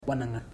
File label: "girl", Ipili